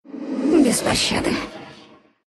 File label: attack, ru; dota2